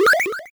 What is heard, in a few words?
Robot Affirmative